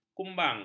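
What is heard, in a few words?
"kumbang", Malay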